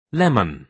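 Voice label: "lemon", British English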